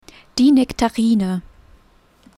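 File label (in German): "die Nektarine", German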